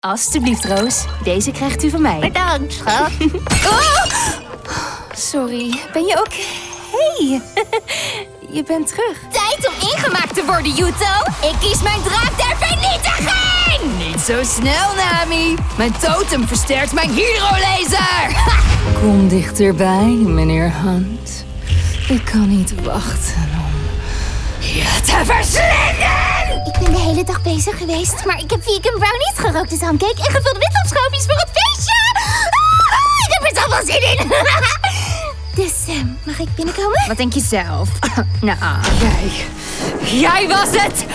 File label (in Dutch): Voice Animation NL